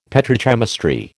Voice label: "petrochemistry", American